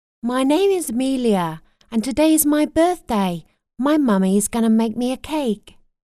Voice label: english voiceover girl voice locutora inglesa, children voiceovers; scotish girl voice; Kid voice over talent